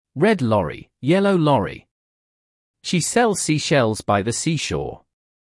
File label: British Tongue Twisters